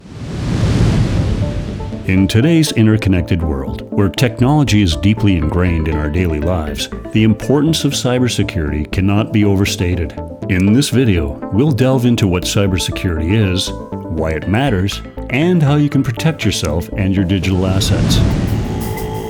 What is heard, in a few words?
explainer montage